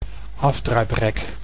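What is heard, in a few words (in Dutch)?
"afdruiprek", Dutch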